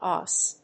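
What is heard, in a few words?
"OSC", English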